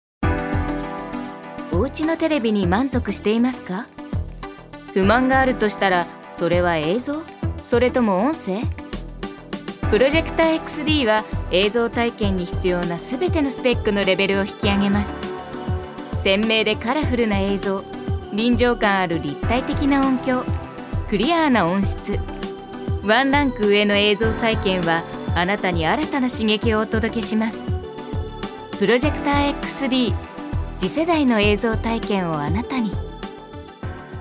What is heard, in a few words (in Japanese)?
ナレーション